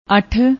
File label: "auth", Punjabi; ਅੱਠ